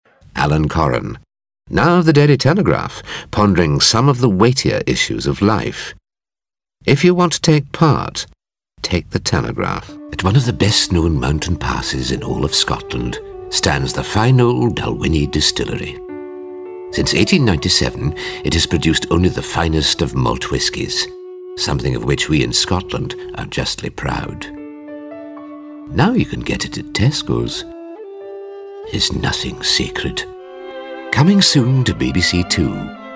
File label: Commercial